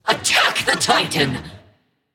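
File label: attack, male; smite; medusa; voicepack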